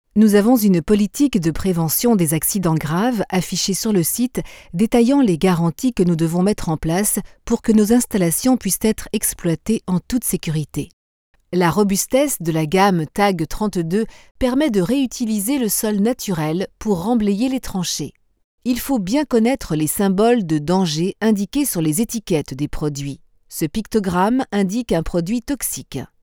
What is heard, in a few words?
Corporate Female French (European)